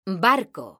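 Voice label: "barco", Spanish, female; mekanta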